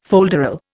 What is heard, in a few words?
"folderol", Indian